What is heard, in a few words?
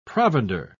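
"provender", British English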